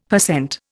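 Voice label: "per cent", Indian English; Dictionary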